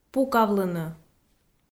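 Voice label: "пукавлыны", Komi, female; kpv audio dict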